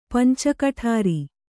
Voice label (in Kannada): "ಪಂಚಕಠಾರಿ", Kannada